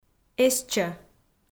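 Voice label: "эстчӧ", Komi, female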